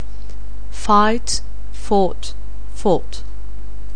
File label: "fight", anglais